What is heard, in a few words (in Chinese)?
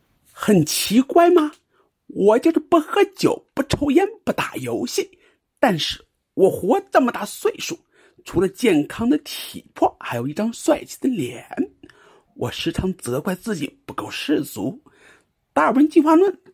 读文章配音